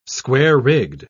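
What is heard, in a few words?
"square-rigged", British English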